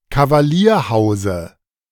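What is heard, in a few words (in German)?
"Kavalierhause", German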